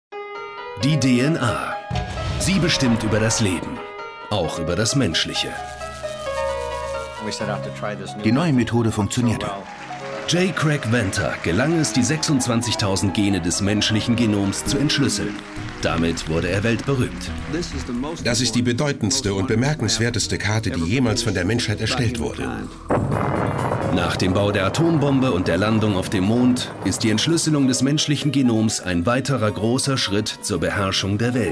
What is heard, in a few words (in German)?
Doku Kommentar; DNA; Craig Venter